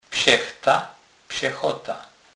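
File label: "psechta", Polish, Kurpie dialect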